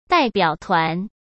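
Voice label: "代表团", Mandarin Chinese; chinese flashcards; hsk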